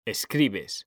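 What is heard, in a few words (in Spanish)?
"escribes", Spanish; mekanta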